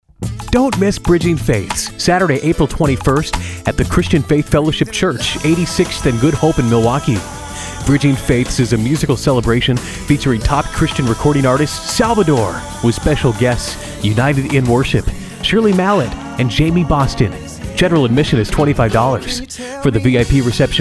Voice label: commercial